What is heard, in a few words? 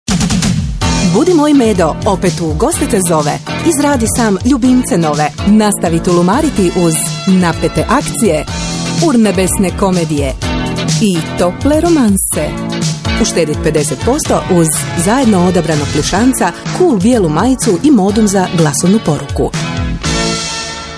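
croatian female commercial, voice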